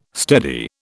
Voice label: "steady", British